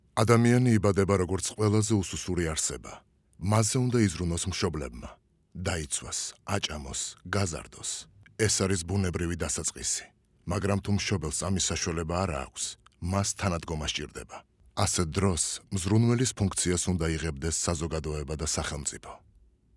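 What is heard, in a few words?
georgian male narration; voice demos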